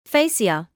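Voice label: "fascia", British English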